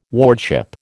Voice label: "wardship", American